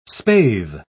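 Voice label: "spathe", British English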